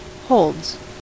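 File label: "holds", US English; pronunciations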